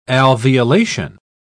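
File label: "alveolation", British English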